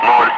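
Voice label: "5", spoken; Ka-50; Wingman; Digits; DCS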